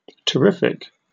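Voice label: "terrific", British English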